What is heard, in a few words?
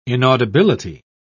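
"inaudibility", British English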